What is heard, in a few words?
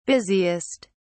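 "busiest", British English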